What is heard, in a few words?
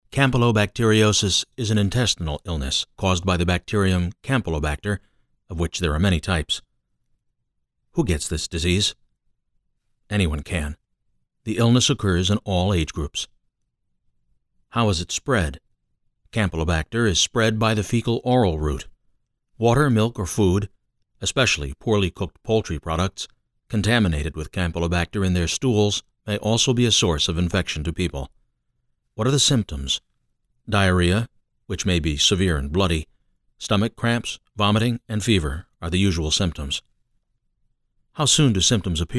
E-learning Medical Explainer